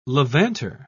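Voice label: "levanter", British English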